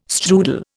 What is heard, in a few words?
"strudel", Indian